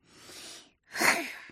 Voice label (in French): ENERVEMENT; mirumiru